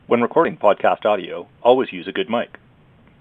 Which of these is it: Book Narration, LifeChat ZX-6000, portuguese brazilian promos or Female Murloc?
LifeChat ZX-6000